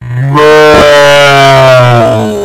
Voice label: "bruh" but loud and slow